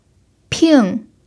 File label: "ping4", chinese pinyin